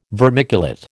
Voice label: "vermiculate", American

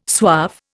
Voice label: "suave", Indian

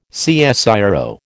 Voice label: "CSIRO", American

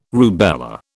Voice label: "rubella", British

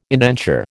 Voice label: "indenture", American

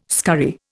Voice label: "scurry", Indian

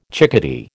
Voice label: "chickadee", American

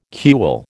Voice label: "cule", American

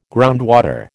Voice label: "groundwater", American

